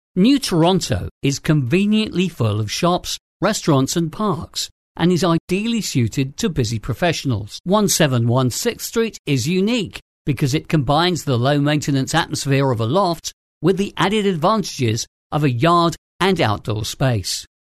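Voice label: UK English, male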